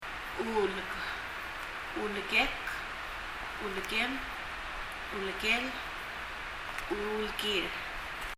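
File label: "uulk" Palauan, back; 背中; 発音; 身体の各部位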